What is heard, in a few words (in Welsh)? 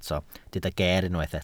"geer", Welsh